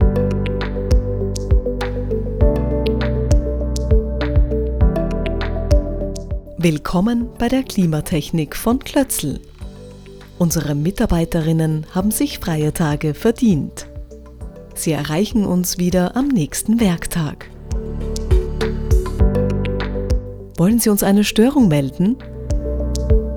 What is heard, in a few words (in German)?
Telefonansage; Kloetzl